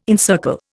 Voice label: "encircle", Indian